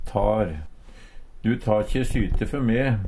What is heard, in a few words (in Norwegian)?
tar, Numedalsmål; Verb; Du tar`kje syte før me.